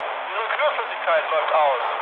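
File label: German damaged water mood low, speech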